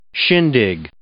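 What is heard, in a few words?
"shindig", English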